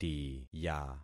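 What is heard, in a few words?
"tiya", concatenated syllables; Affixing experiment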